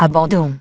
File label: "Resign", French